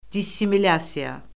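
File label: "dissimilyasiya", Azerbaijani; Orfoqrafiya Lüğəti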